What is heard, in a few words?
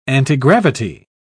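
"antigravity", British English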